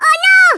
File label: grenade, Hispanic; Worms Armageddon